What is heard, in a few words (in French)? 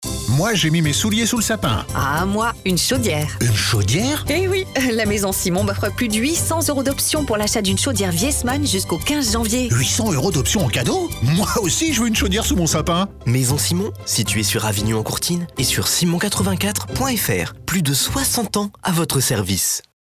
Spot radio; NRJ; VIESSMANN